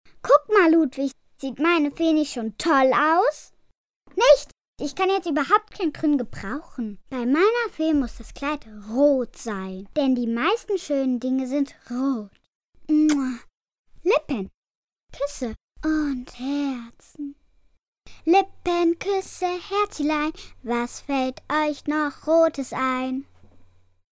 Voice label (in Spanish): german child voiceover locutora infantil alemana